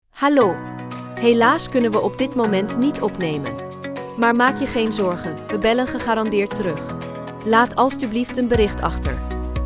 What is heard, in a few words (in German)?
lustig ansage